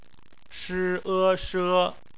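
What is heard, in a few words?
"she" bopomofo, Chinese; phonetics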